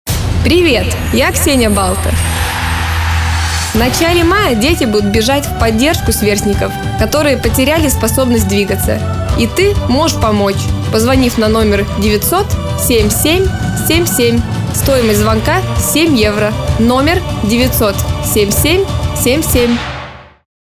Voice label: rus; BALTA